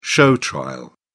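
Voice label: "show trial", British English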